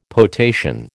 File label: "potation", American